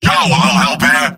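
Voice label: help me, robot; mvm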